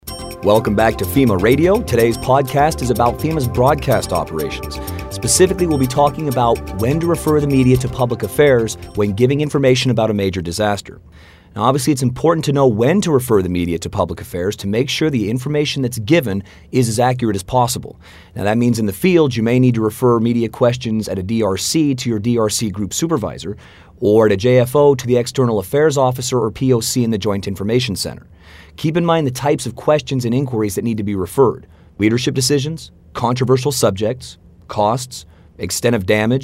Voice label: w Music; FEMA